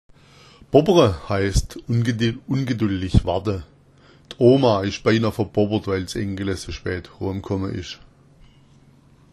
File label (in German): bobbera, schwaebisch